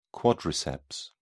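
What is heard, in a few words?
"quadriceps", English, British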